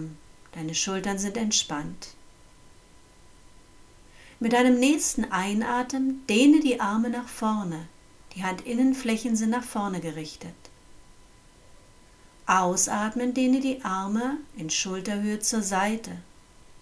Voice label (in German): Ausgleichende Pranayama Übung